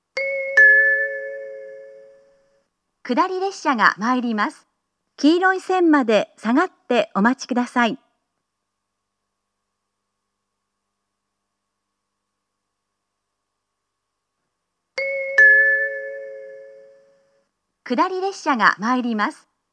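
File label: platform 3 approaching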